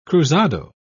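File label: "cruzado", British English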